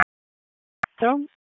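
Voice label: Police Southwest District Disp, radio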